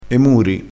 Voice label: "muri", crespinese

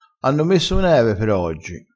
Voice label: "speramo", crespinese

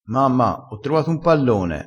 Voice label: "di chi e", crespinese

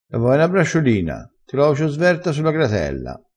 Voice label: "sverto", crespinese

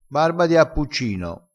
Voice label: "barba di appuccino", crespinese

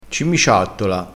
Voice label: "cimiciattola", crespinese

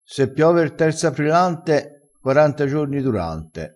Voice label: "aprilante", crespinese

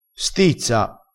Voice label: "stizza", crespinese

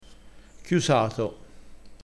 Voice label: "chiusato", crespinese

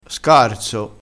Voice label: "scarzo", crespinese

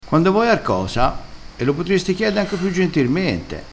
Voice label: "gentirmente", crespinese

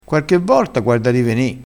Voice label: "quarche", crespinese

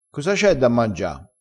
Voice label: "strasciato", crespinese

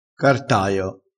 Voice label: "cartaio", crespinese

